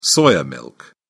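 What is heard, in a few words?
"soya milk", English, British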